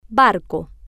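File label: "barco", Spanish, female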